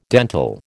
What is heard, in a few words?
"dental", American